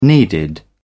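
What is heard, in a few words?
"needed", British English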